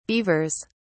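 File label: "beavers", British English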